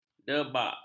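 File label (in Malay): "debak", Malay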